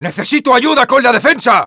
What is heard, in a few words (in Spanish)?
Sniper help me defend, es